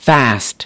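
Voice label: "fast", English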